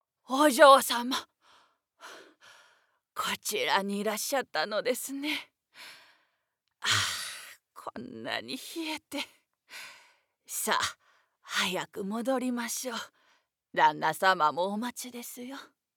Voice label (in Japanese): アニメ